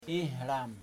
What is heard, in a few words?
door, Kamba